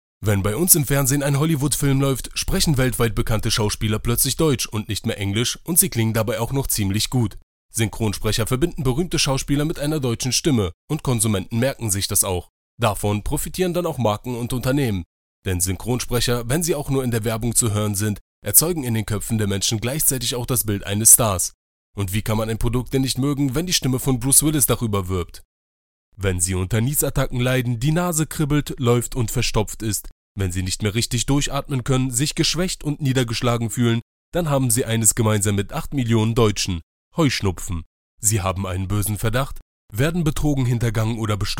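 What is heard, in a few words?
German, male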